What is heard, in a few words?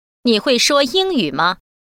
speak english mandarin